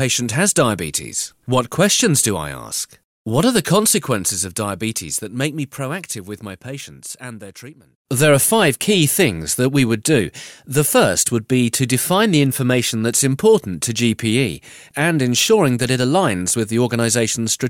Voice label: e-learning

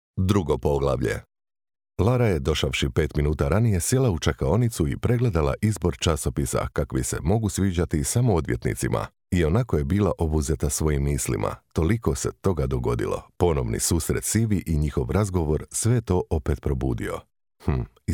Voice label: Croatian audiobooks, Deep Croatian Voice Actor

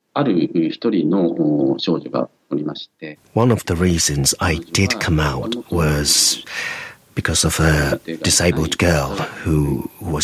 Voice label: japanese natural

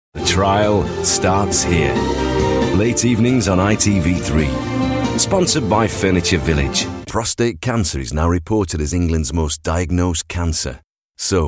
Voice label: commercials

